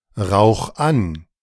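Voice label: "rauch an", German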